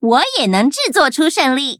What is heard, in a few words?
loading, zh-hant; cookie run